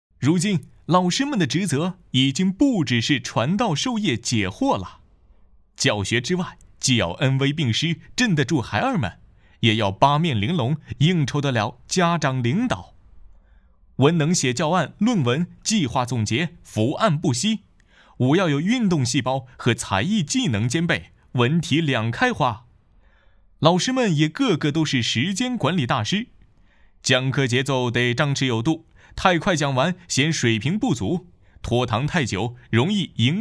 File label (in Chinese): 模仿; 飞碟说教师节